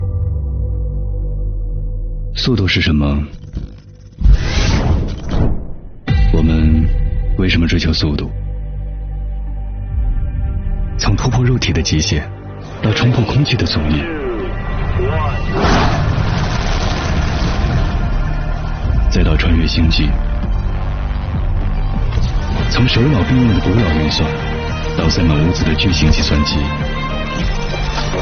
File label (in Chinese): 男 高端广告; 一加手机